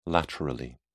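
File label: "laterally", British English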